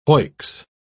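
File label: "hoicks", British English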